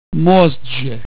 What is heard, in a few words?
"most", Russian